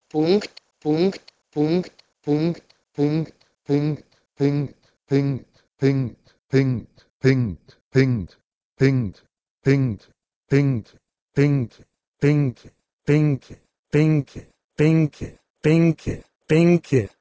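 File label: Proto-Indo-European "pnkwt" to Lithuanian "penki"; eastern origins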